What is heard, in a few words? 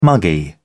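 "muggy", British English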